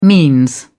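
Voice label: "means", British English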